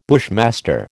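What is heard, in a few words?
"bushmaster", American English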